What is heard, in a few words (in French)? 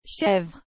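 "chèvre", French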